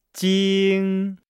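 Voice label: "jing", Chinese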